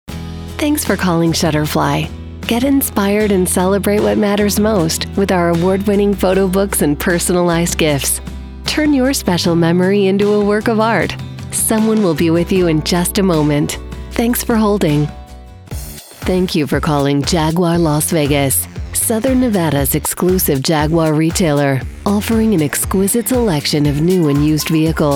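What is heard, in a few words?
Telephony, female voice actor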